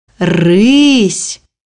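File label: "lynxes", Russian; bukvar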